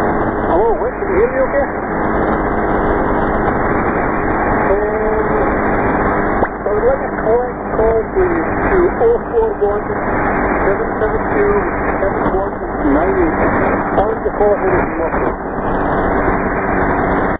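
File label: radio, 2182kHz, Marine Audio HF; 2182kHz Wick R Working SEDCO703 received 1991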